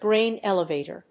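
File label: "grain elevator", English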